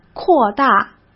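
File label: "扩大", Mandarin Chinese; hsk; chinese-flashcards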